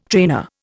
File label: "trainer", Indian